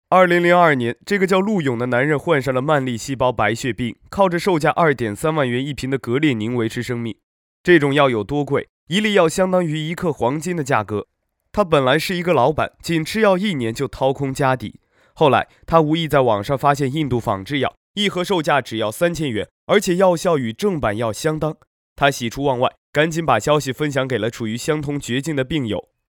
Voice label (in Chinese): 推荐解说自然简单叙述